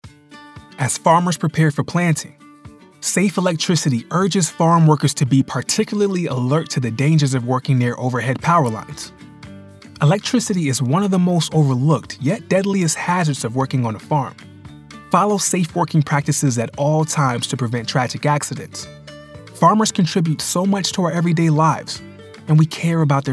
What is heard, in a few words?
Spot w music male voice